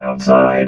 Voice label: "outside", robot